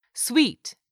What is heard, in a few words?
"sweet", English; 3000 words